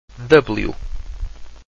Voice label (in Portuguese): "dublyoo", Portuguese